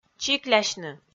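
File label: "чиклясьны", Komi, female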